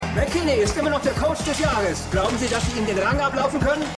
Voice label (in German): Sportreporter; Winning Time